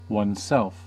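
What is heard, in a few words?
"oneself", American English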